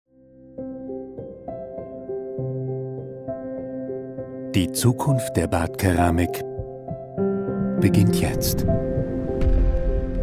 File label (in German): Werbung Hochwertig; Villeroy Boch